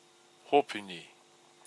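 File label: "hopuni", Maori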